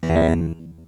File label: Vocoder